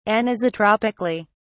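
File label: "anisotropically", British English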